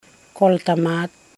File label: elicit male cousin, matukar